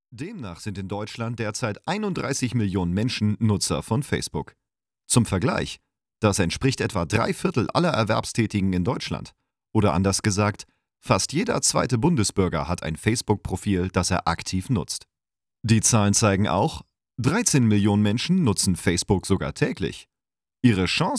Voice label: Tutorial; neokom

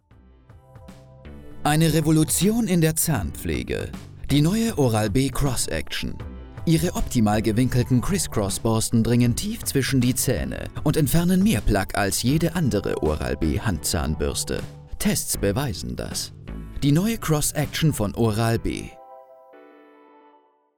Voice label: Werbung; Oral-B

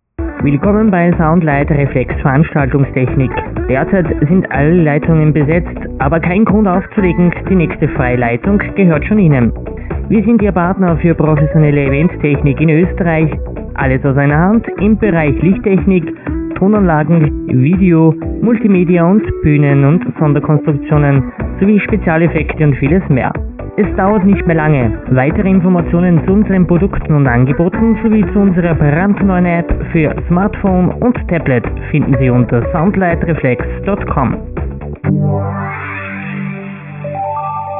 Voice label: Telefonansage

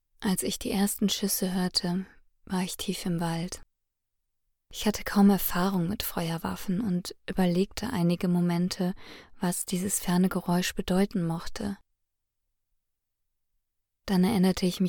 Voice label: Hörbuch

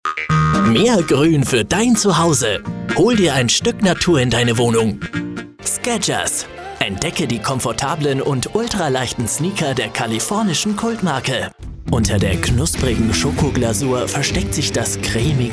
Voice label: Sprecher Showreel

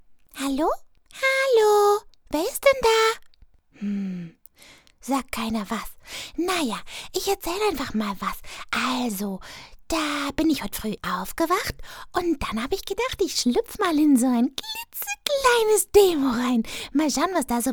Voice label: Trickstimmen Medley

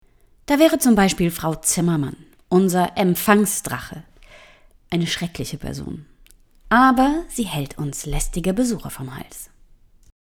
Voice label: Ansage

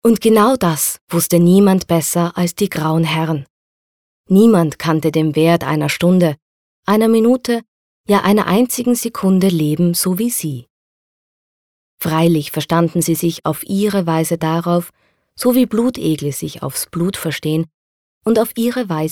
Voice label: Hoerbuch

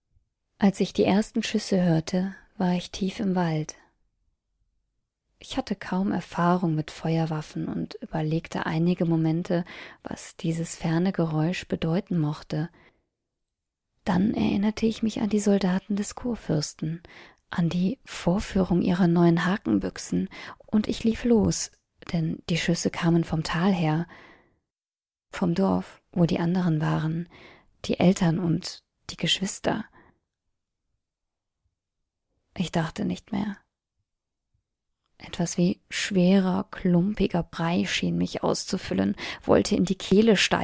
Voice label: Hörbuch

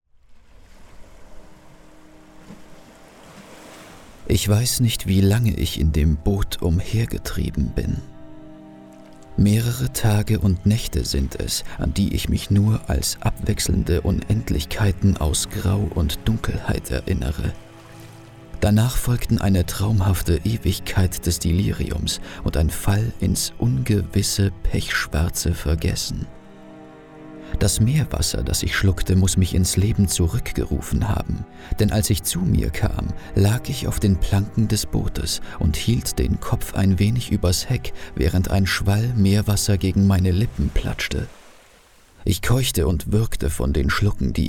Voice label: Hoerbuch; Die unentdeckte Insel

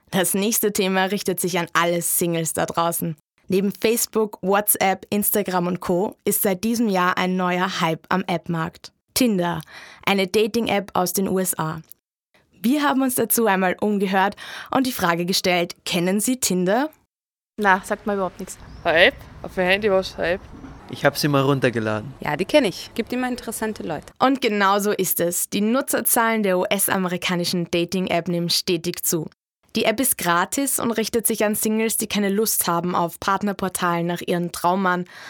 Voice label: Radio Beitrag

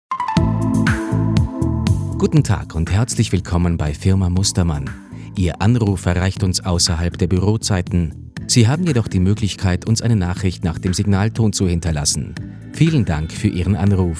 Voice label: Telefonschleife

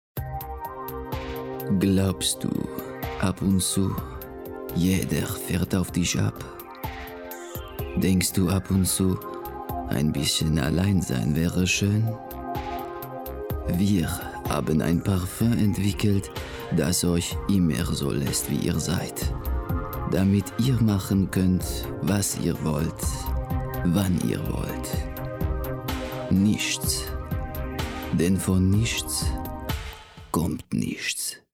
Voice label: Werbung; NICHTS

